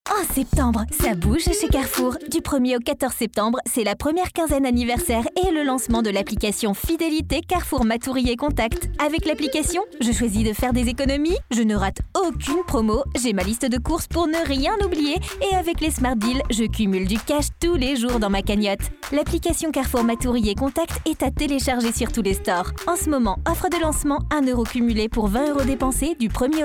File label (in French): Spot Radio voix off feminine; Voix enjouée, motivante; Carrefour